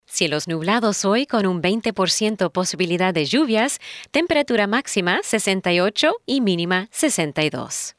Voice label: Spanish Weather; Unattended Weather